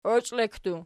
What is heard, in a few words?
"oichlekki", Archi